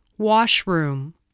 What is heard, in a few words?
"washroom", spelling